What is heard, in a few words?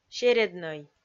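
"середнӧй", Komi, female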